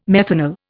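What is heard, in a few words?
"methanal", English, Indian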